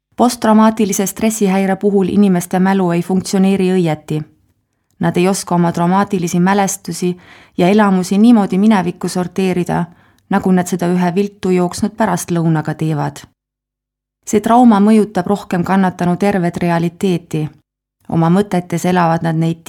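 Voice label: Estonia, female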